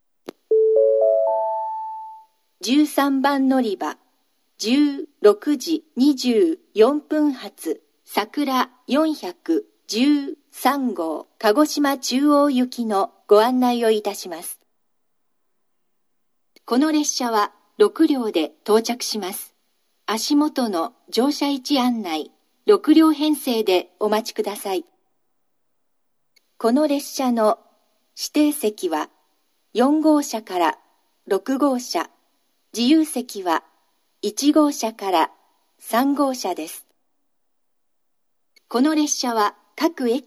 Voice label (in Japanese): 新水俣, 13番のりば案内放送; さくら413号 鹿児島中央行き; shin minamata